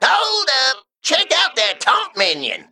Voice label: Male Mech Emote error taunt

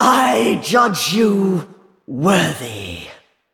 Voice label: female val'kyr well played

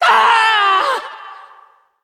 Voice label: Female Val Kyr Death

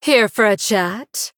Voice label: Female Blood Elf Greetings

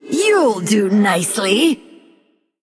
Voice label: Female Undead Attack

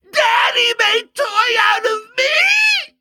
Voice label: Flesh Beast Emote Play

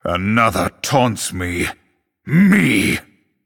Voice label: Male Night Elf ERROR TAUNT

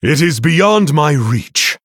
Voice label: Male Human ERROR TARGET

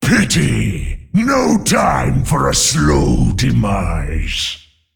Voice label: Male Demon Loss

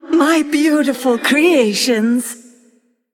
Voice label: Female Undead Play

